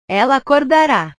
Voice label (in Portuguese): "ela acordara", portugues